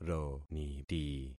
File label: "roniti", concat syllables; Affixing experiment